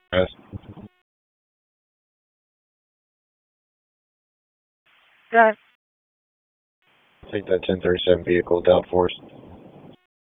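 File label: Police Northeast District Disp, radio; Raleigh; wake; p25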